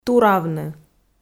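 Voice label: "туравны", Komi, female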